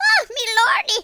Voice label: "uh oh", Irish; speech